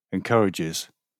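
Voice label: "encourages", British English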